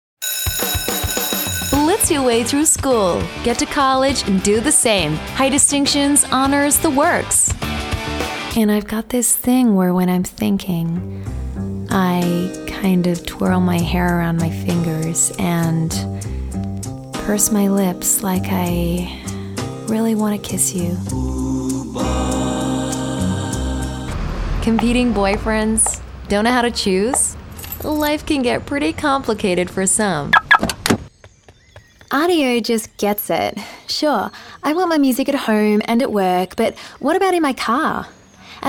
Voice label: AU English US Accent, Australian Female